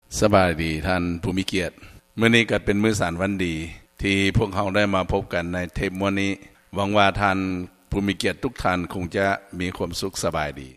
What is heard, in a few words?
Lao